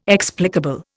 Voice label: "explicable", Indian